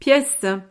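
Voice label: "piece", French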